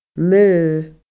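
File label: "maoth", Scottish Gaelic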